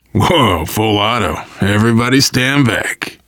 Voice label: take submachine gun, voice, biker; Left 4 Dead 2; left4dead2 dlc3; survivor

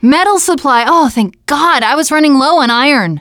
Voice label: metal supply, teengirl

